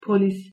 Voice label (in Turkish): "polis", Turkish